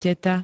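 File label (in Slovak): "teta", Slovak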